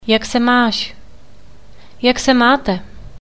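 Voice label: How Are You, Czech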